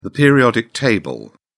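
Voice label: "periodic table", British English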